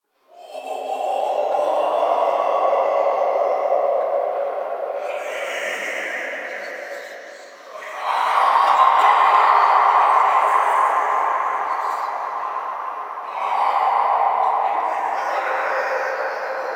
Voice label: Whispers; Inf Hub Lab Behold Us; Warframe; Ambience; Entrati